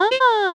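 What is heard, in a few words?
voice "yatta", female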